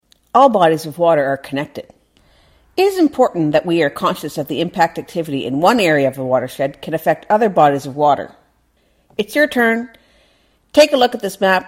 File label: narration review